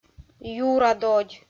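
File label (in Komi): "юра додь" Komi, female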